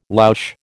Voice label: "louche", American